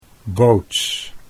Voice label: "boots", Dutch

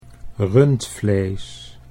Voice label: "rundvlees", Dutch

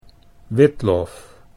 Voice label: "witlof", Dutch

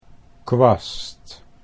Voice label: "kwast", Dutch

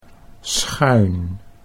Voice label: "schuin", Dutch

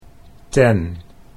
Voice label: "ten", Dutch

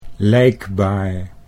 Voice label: "lijkbaar", Dutch

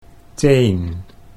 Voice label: "teen", Dutch

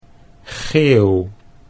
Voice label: "geeuw", Dutch

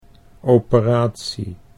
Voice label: "operatie", Dutch

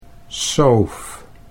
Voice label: "soof", Dutch

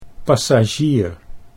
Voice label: "passagier", Dutch

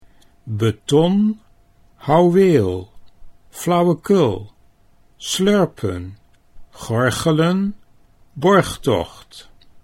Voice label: "betonhouweel", Dutch

